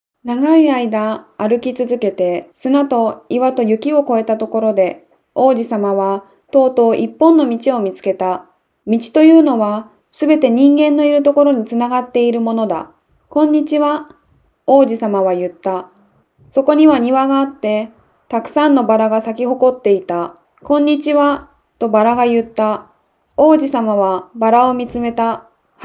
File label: Japanese, Female